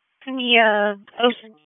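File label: Dispatch, p25; WSO DISP 1; wake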